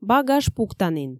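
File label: Komi, female; багаж пуктанін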